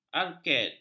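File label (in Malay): "arked", Malay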